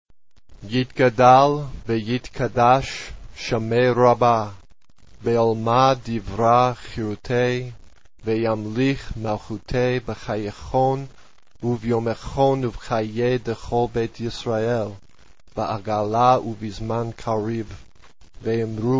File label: kaddish voice only; Blessing Cards